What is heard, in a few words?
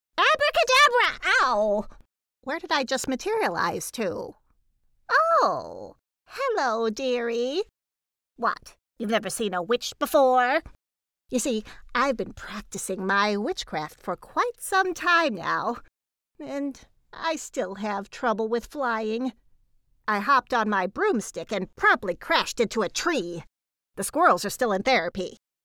Character Bumbling Witch